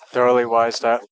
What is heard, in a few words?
speech est